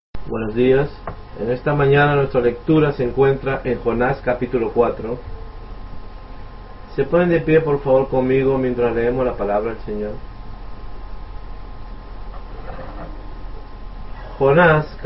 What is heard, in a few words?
Spanish; JonahsSelf-Pity